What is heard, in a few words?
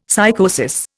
"psychosis", Indian; Dictionary